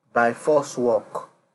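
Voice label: "byforce work", Nigerian Pidgin, male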